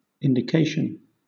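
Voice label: "indication", British English; pronunciations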